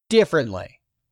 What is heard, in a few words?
"DIFFERENTLY", english; 3000 words